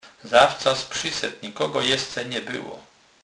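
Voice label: "zawcas", Kurpie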